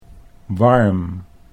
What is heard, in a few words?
"warm", Dutch